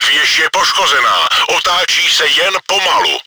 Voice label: turret rotator damaged, cz; Věž je poškozena, otáčí se jen pomalu.; Czech; Башня повреждена, вращается, но медленно.; World of Tanks